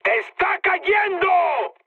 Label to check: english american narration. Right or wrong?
Wrong